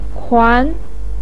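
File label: "kuan", Mandarin Chinese, second tone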